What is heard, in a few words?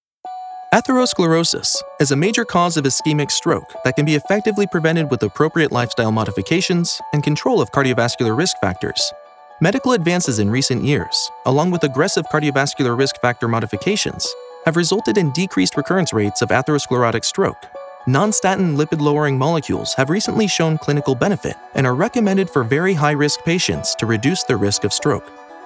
eLearning Medical